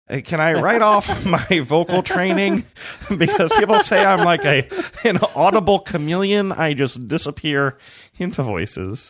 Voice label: saying and can i write off my vocal training different voice